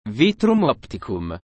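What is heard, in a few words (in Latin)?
"Vitrum opticum", Latin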